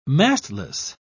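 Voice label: "mastless", British English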